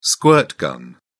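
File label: "squirt gun", British English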